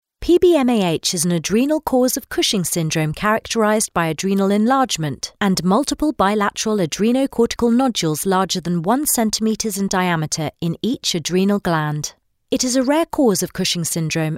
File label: english british medical narration